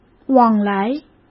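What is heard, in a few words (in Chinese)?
"往来", Mandarin; chinese-flashcards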